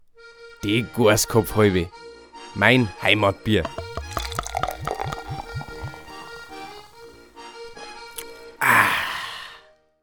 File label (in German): Werbung Reminder; Irlbacher